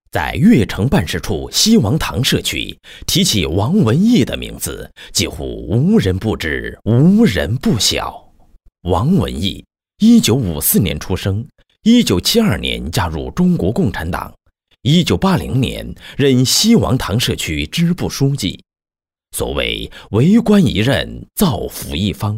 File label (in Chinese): 人物解说, 男